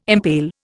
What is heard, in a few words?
"impale", Indian